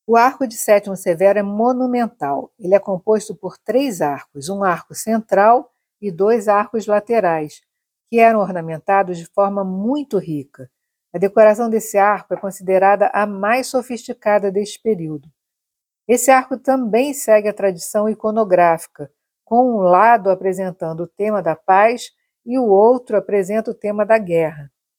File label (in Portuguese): Audioguia; Arco de Setimo Severo; Arquitetura